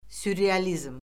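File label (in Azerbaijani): "sürrealizm", Azerbaijani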